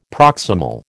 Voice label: "proximal", American